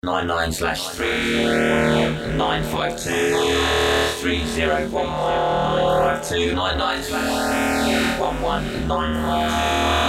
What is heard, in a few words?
Teknoid Vox; infiltrator